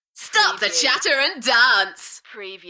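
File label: UK female, Enthusiastic, DJ vocals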